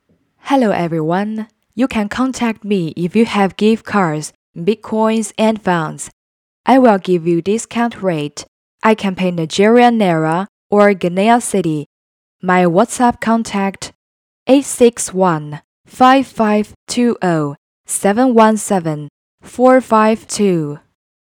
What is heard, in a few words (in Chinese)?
英语 商务 配音